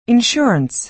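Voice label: "insurance", American English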